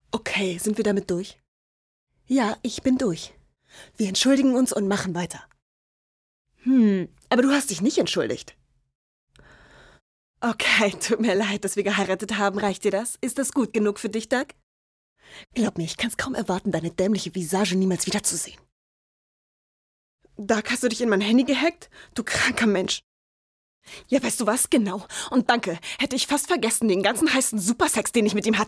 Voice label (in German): aufgebracht; Synchron